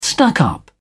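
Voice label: "stuck up", English, uk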